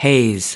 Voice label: "haze", us

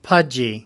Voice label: "pudgy", us

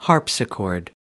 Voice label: "harpsichord", US

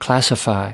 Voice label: "classify", us

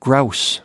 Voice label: "grouse", us

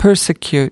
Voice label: "persecute", us

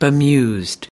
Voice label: "bemused", us

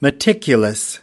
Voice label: "meticulous", us

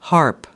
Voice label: "harp", US

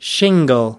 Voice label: "shingle", us

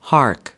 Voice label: "hark", us

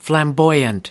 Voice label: "flamboyant", us

